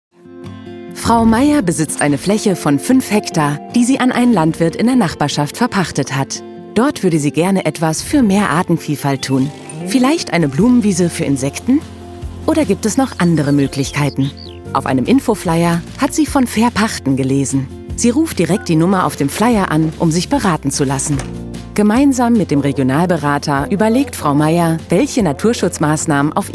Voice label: Erklaerfilm; Fairpachten

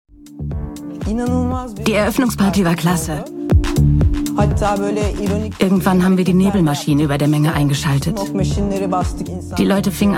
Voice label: VO Doku Veranstalterin emotional; Vibes Istanbul

